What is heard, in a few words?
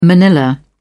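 "manilla", British English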